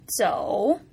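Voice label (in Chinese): "zou", Mandarin Chinese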